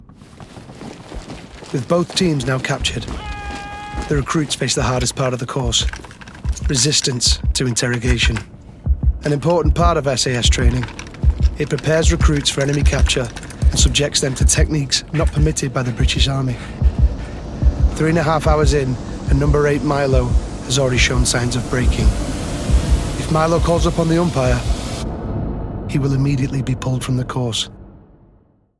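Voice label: Documentary Reel; SAS Who Dares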